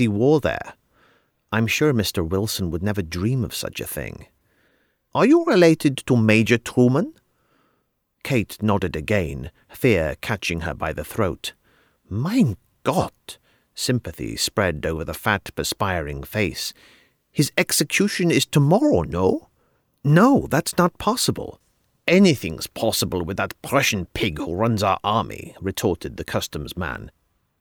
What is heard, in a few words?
german